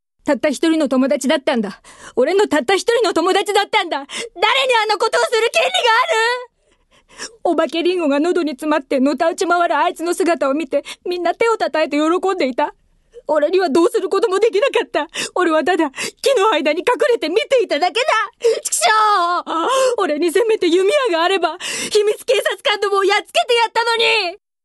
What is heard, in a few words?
boy, female voice